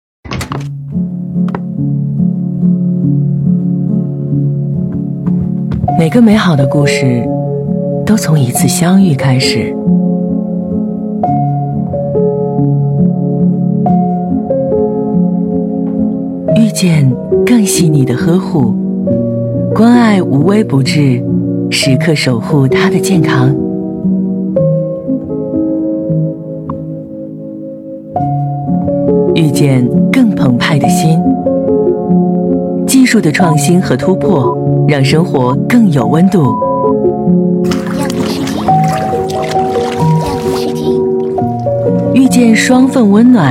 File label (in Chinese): 女 广告 浴霸; 奥普浴霸FX奥芯